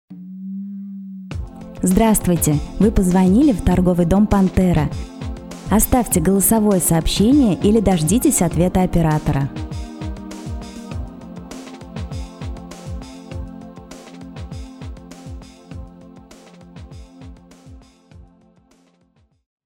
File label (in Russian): IVR; Пантера